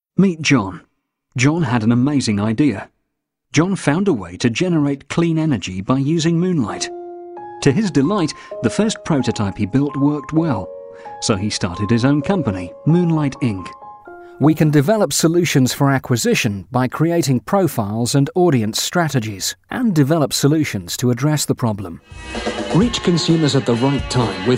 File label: Male Voice Over Corporate Narration